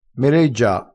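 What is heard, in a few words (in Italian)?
"meleggia", dialetto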